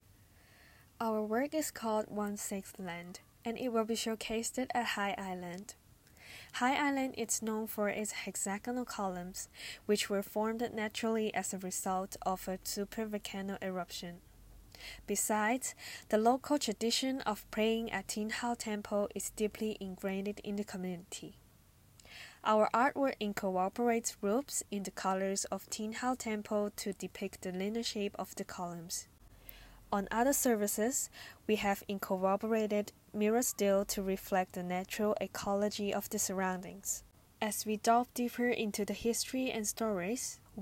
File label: Audio Guide English; One-sixth Land